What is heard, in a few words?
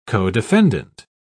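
"codefendant", English, British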